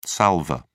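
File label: "salvor", British English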